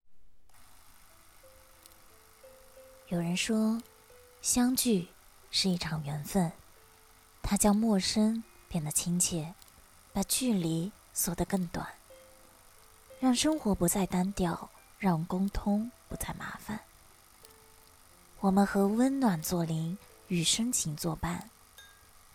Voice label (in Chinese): 宣传片自然温和